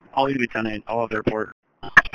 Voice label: Police Sheriff Dispatch